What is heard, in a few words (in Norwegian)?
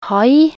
"hai", nb NO